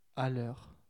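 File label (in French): "à l'heure" French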